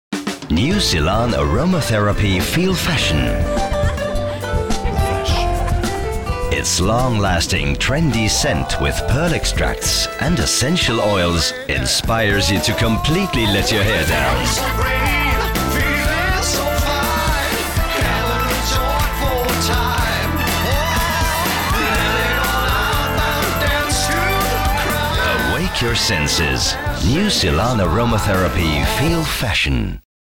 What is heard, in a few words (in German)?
englisch